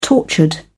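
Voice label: "tortured", English, British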